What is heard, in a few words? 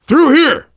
world farmhouse, voice, biker